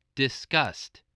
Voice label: "disgust", spelling

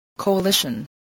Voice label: "coalition", voice

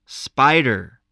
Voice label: "spider", English voice

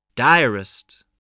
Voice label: "diarist", english spelling

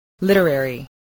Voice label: "literary", spelling

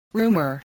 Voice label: "rumour", English spelling

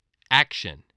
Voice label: "action", English voice

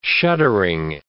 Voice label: "shuddering", words

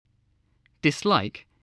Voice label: "dislike", spelling

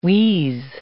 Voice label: "wheeze", English

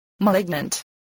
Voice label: "malignant", spelling voice

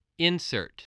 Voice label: "insert", voice spelling

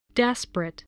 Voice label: "desperate", English

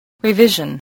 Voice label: "revision", English

